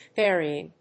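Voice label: "varying", English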